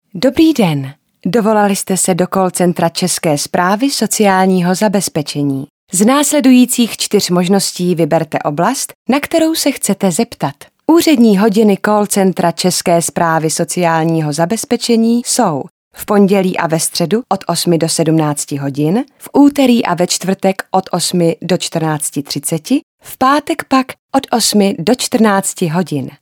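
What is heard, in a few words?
Female Czech IVR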